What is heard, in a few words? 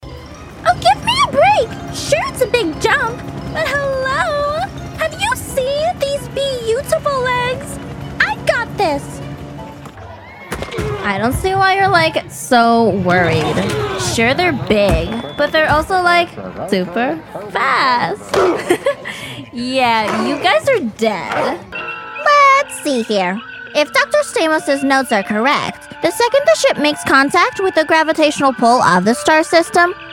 Animation